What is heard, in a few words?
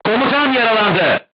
voice message commander wounded, crew tanks turkish